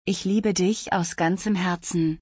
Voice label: I love you with all my heart in German